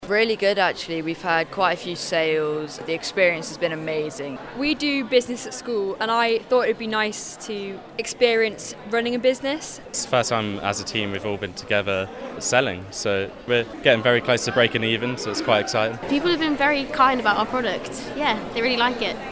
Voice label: market student vox; young entrepreneurs showcase business skills at harborough market